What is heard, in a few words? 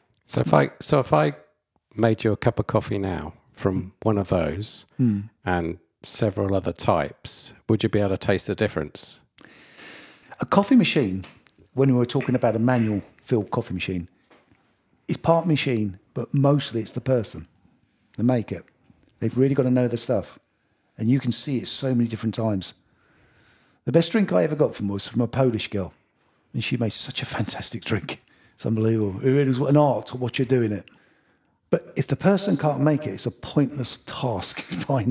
Pub Natter; Taster